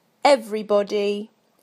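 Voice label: "everybody", British English; PictureDictionary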